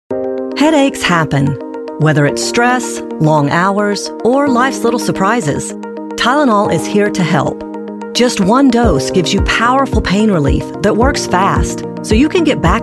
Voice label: Commercial, Female Voice Over